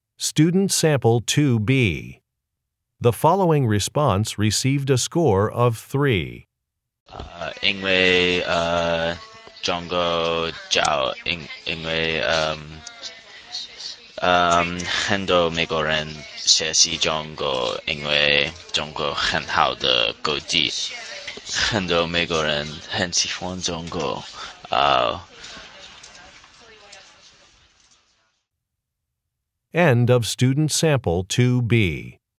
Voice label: chinese conversation